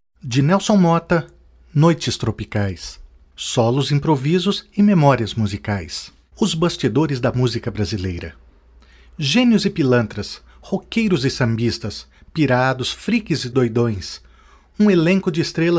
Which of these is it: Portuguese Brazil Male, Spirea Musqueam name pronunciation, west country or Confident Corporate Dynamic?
Portuguese Brazil Male